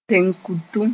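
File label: "tẽn-kutum", Mooré; ills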